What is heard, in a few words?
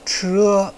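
"che", Mandarin Chinese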